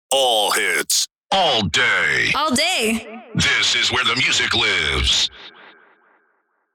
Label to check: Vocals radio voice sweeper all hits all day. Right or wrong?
Right